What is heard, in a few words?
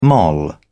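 "moll", British English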